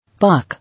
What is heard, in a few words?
"Pock", English